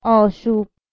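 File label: "aashub", Persian, female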